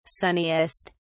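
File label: "sunniest", English, uk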